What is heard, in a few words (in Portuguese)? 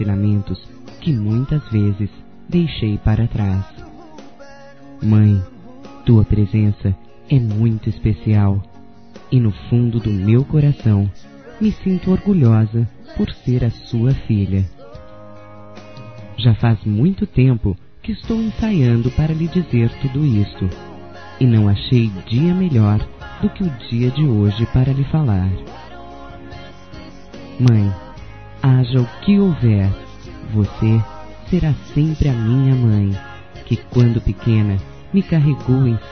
Dia das Mães Desculpas Feminino; Telemensagens 2017